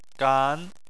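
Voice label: "gan" second tone, Chinese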